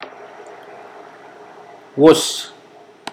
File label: reef, Mokilese